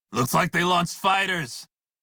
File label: Crew Gun Spot Fighter Male en; Dialog; Warframe; Railjack Crew; Red Veil